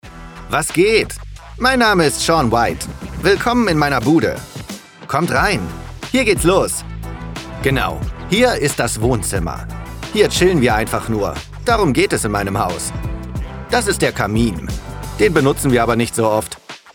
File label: Voiceover; Cribs